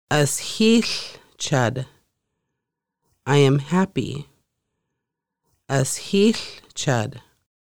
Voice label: I am happy, conversational Lushootseed; Conversational Lushootseed: Lessons 1-5